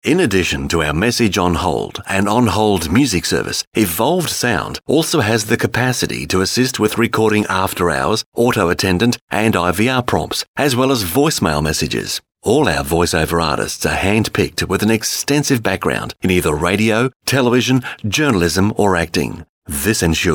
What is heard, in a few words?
ivr